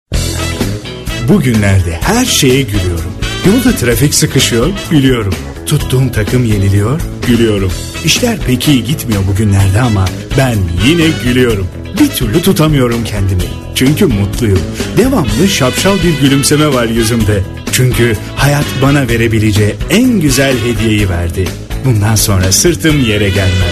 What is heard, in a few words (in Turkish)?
reklam neseli; molped